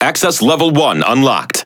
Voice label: Speech Access Level, EN; World Of Warships